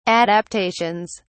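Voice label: "adaptations", English, British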